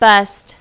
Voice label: "bust", casino